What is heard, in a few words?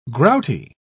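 "grouty", British English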